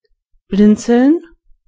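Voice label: blink, German voices words; gcompris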